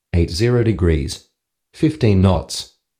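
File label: wind 80, Australian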